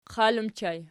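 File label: "qal" ergative plural, Archi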